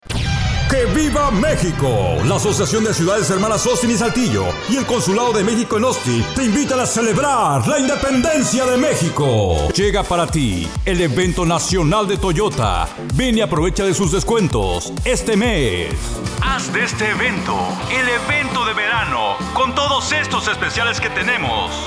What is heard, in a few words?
Male